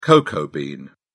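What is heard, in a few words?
"cocoa bean", English, British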